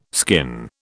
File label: "skin", British